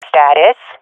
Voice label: request report, swat fem; vo; Counter Strike Model Pack; CS:GO